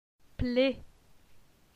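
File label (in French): "Ple", French; phonetique; la syllabe e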